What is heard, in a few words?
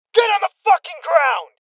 Voices Order To Surrender Male Young